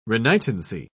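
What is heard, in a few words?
"renitency", English, British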